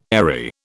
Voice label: "airy", British